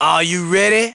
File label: "Are You Ready", announcer; gameplay ready